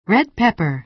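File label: "red pepper", American English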